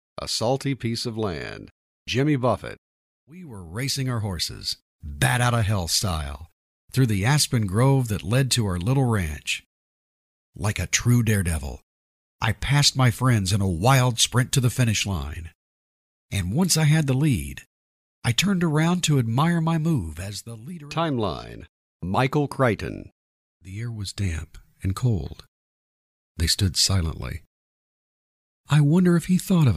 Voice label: Audio Book